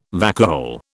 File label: "vacuole", British